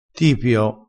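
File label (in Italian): "tipio", dialetto crespinese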